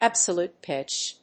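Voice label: "absolute pitch", English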